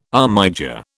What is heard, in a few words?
"armiger", British